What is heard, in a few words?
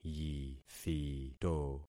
"yithito", concat syllables; Affixing experiment